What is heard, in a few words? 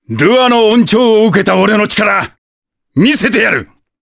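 vox, voices, Japanese; kingsraid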